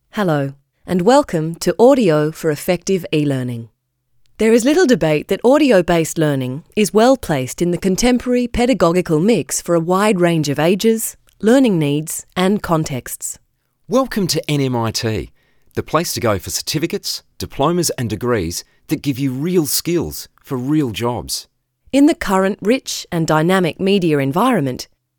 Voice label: eLearning